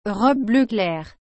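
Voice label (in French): "robe bleu clair", French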